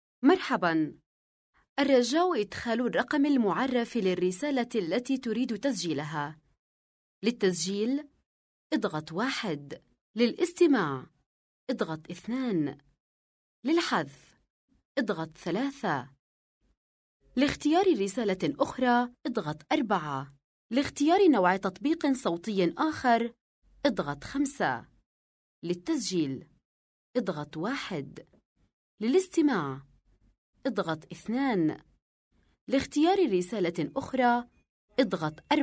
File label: Arabic Female IVR